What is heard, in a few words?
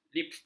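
"lipstik", Malay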